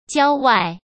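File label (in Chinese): "郊外", Mandarin Chinese; hsk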